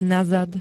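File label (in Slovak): "nazad", Slovak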